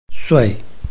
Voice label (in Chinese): sui4, Chinese